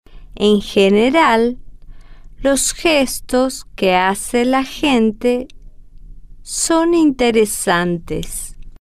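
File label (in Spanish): en general los gestos que, Spanish, female, slow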